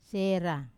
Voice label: "sera", net, wamesa